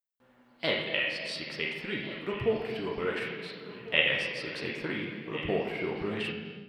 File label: veteran pa; gmod; star wars battlefront 2 galaxy map; rp fortmithraw